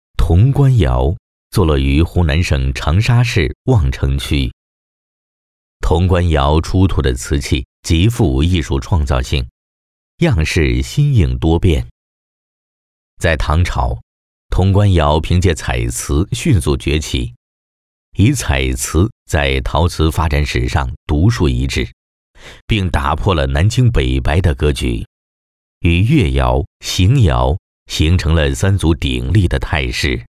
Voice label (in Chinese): 男, 纪录片, 官窑